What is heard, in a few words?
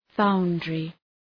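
"foundry", English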